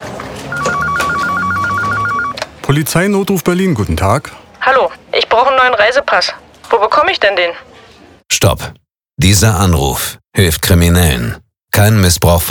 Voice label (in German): polizei funkspot